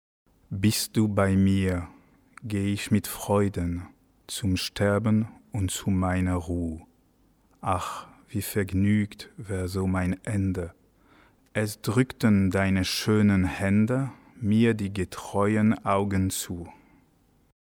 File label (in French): texte moyen; bist du bei mir; diomedes oder die triumphierende unschuld bwv 508 anh ii 114; chantons baroque